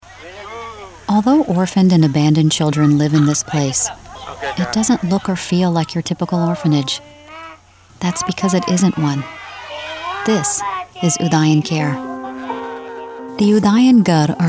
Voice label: Narration